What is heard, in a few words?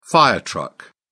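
"fire truck", British English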